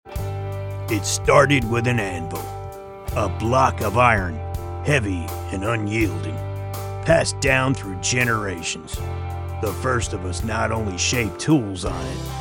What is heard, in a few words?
Agricultural Ad